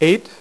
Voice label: "6", poker; iPoker 2000

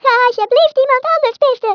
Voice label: "Leave me alone", Speech, Dutch; International; 11khz; CyberMycha Extra

